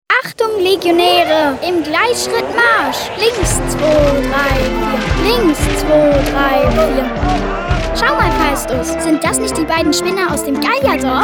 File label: Spot; Asterix